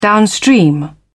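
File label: "downstream", British English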